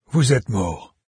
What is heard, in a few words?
die, fr, narrator; Fate The Traitor Soul